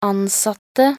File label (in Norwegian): "ansatte", nb NO